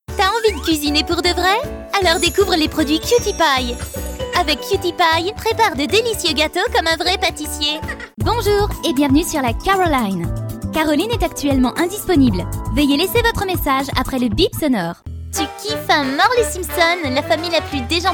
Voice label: french others